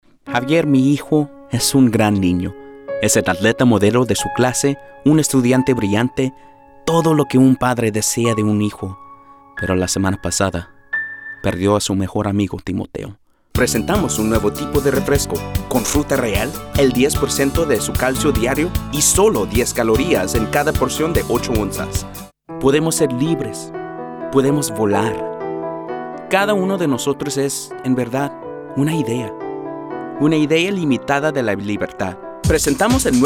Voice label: Spanish General; Commercial Voiceover, TV and Radio, Narration, Corporate; Spanish (Latin and Castillian)

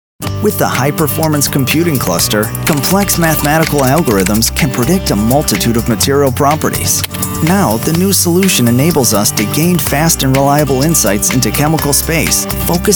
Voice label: elearning tutorial

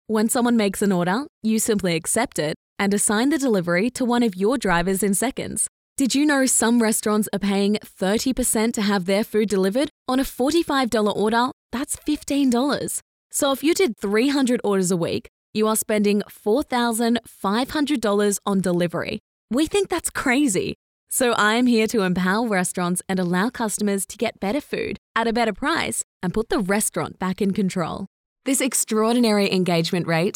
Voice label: Explainer Australian Female

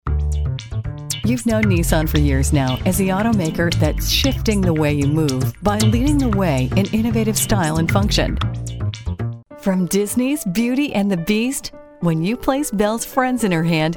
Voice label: commercial, commercial voiceover

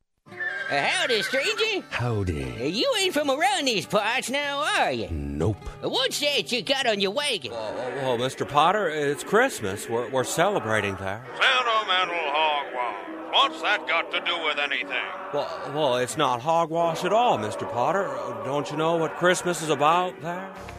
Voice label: Characters Male, commercial voiceover

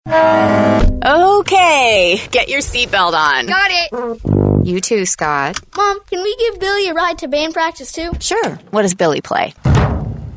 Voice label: Mom

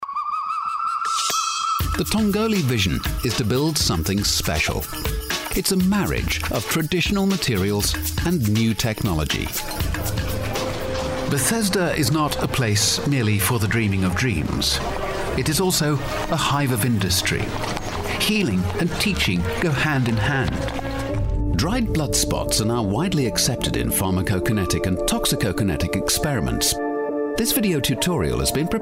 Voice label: Narration Male; commercial voiceover

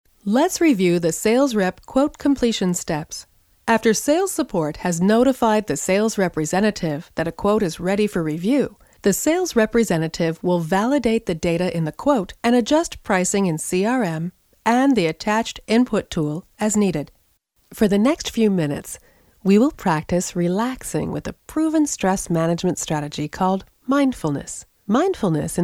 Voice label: eLearning Female; commercial voiceover

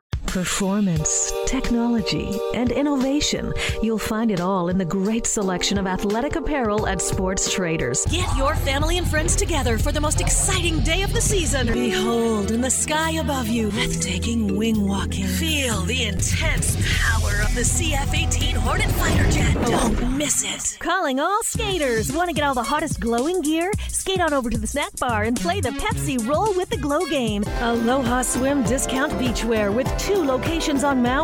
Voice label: Commercial Female; commercial voiceover

